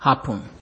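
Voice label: "happen", Nigerian Pidgin, male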